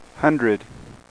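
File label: "hundred", English; tclock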